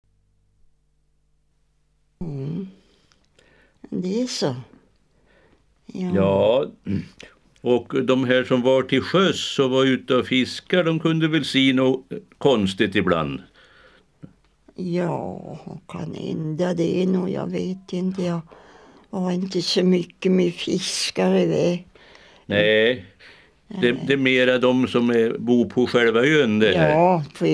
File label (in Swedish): Väddö, dialekter; Svenska sagor; Folkminnen